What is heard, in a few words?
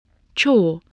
"chore", English, voice